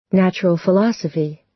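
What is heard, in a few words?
"natural philosophy", American English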